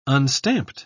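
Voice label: "unstamped", British English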